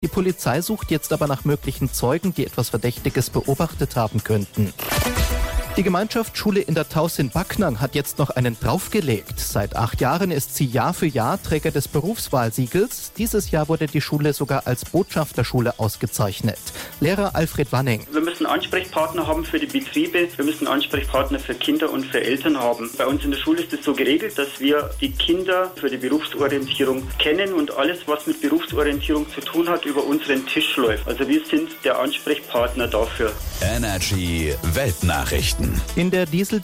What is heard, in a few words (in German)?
Radio Nachrichtenmitschnitt; ENERGY